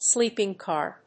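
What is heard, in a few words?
"sleeping car", English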